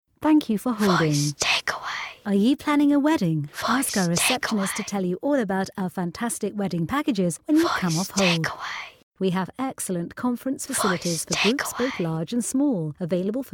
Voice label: Hotels Rich Female